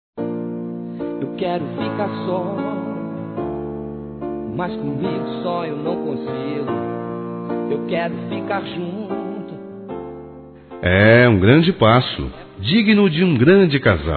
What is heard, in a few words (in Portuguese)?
Felicidade Noivado Masculino, Telemensagens; Telemensagens 2017